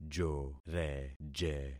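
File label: "joreje", concatenated syllables; Affixing experiment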